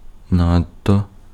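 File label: Ukrainian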